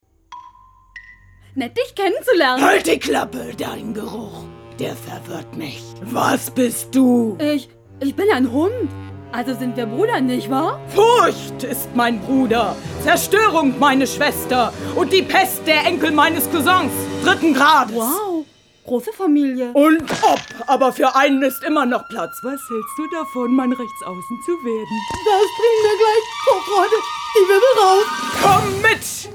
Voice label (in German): trickfilm stimme